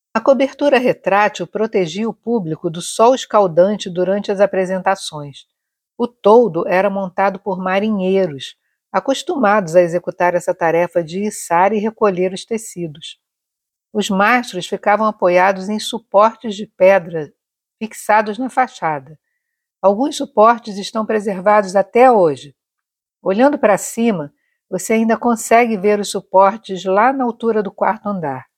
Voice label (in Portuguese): Audioguia; Coliseu; EXTERNO; Cobertura Retratil